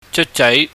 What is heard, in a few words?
"jeukjai", Cantonese